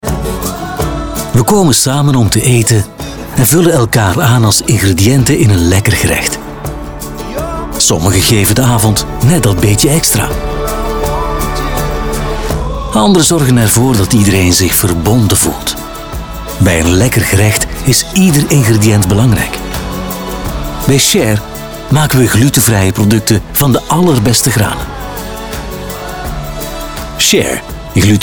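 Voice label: Flemish, male, commercial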